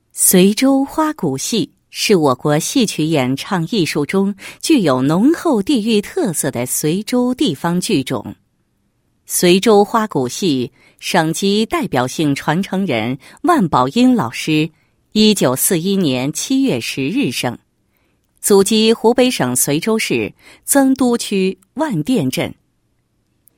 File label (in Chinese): 女 人物 申报片; 随州花鼓戏国家级代表性传承人申报片